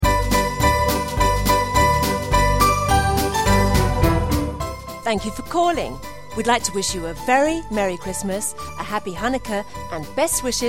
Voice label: Thankyou for calling Merry Xmas Happy Hanukkah Best Wishes, christmas phone messages